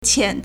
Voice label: "qian2", Chinese